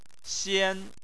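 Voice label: "xian", Chinese, first tone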